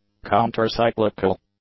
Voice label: "countercyclical", English; adjectives